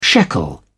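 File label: "shekel", British English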